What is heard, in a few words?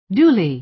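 "duly" American English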